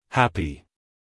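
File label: "happy", American English, male; everyone-can-use-english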